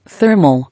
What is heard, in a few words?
"Thermal", English